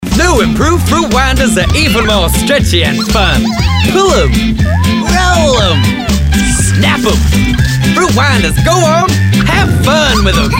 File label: Kids Fruit Winders Commercial